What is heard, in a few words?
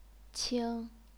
"qing1", chinese pinyin